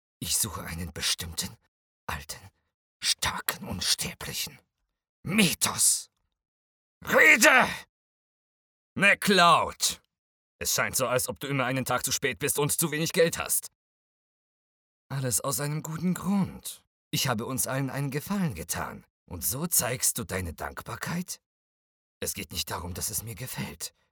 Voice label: Drama, German; DE